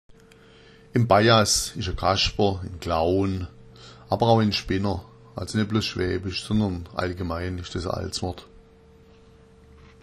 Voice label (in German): "bajass", schwaebisch